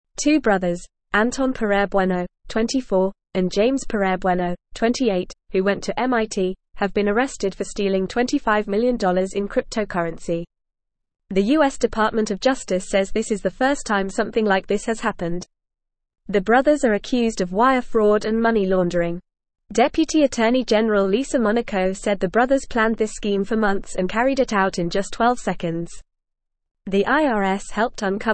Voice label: English Upper Intermediate FAST Reading; MIT Brothers Arrested for 25 Million Cryptocurrency